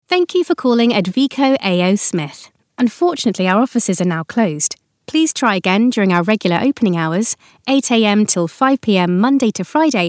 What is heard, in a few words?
telephone